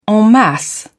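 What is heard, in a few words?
"en masse", British English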